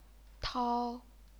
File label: "tao1", chinese pinyin